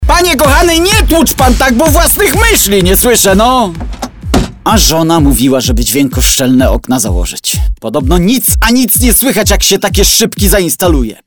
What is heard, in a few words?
POLISH, MALE